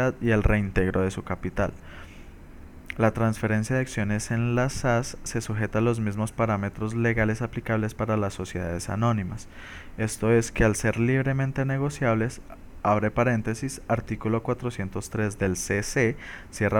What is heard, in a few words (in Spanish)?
comercial